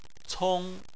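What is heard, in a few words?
"cong" first tone, Chinese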